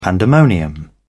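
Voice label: "pandemonium", British English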